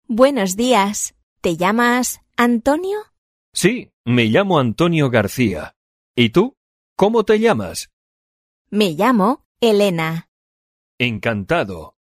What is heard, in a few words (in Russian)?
urok dialog